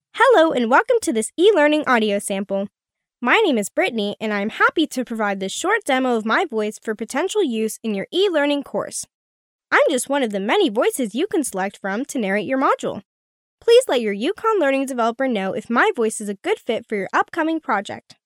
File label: Formal, Youth, e-learn